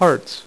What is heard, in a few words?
"hearts", Dealer's Speech; PowerPOKER Demo